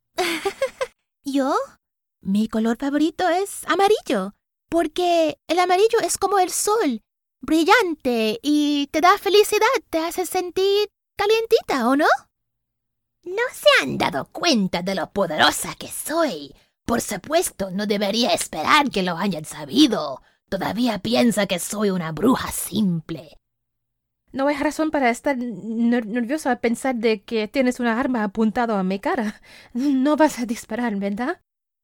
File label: Spanish Animation and Videogame